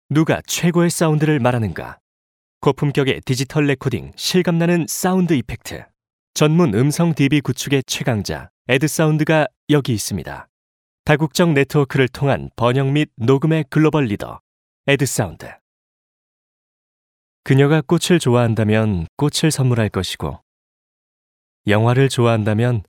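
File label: Korean male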